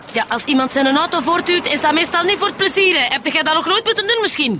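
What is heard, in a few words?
Flemish, Female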